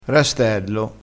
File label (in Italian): "rastello", crespinese, vocabolario dialettale